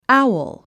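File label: "owl", English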